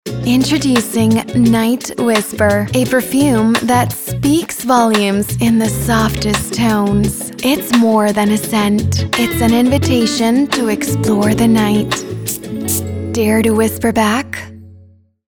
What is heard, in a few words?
ENG ENG Sample Sultry Confident